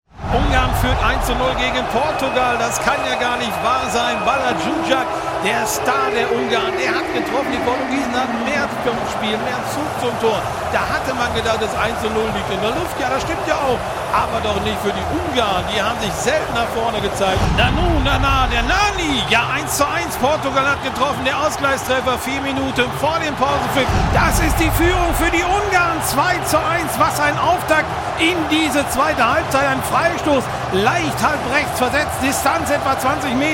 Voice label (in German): Berlin Reporter Mix